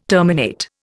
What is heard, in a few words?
"terminate", Indian